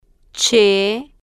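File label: "ch", spanish, female, slow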